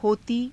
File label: "hotii", Hindi